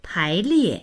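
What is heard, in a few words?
"pai2lie4", Chinese; páiliè; 排列; 配列、順列; HSK４級必修単語集（P～W音声付）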